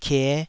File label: "kie5", taiwanese syllable